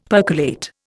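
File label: "percolate", Indian English; Dictionary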